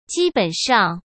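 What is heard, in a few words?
"基本上", Mandarin Chinese; chinese flashcards; hsk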